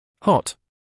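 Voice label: "hot", British English, male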